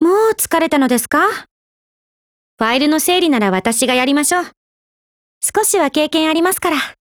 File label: DIALOGUE JP; Welrod